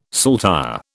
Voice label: "saltire", British

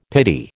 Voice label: "pity", American

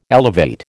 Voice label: "elevate", American

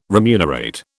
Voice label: "remunerate", British

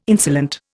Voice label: "insolent", Indian

